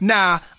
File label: "nah", male; expletives